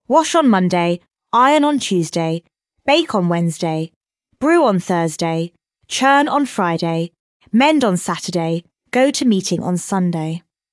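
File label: Wash on Monday, female British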